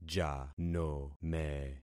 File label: "janome", concat syllables; Affixing experiment